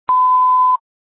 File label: Grand Junction Fire Department Dispatch